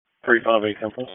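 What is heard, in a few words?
Dispatch, p25; wake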